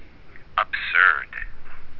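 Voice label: "absurd", USA, male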